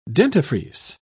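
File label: "dentifrice", British English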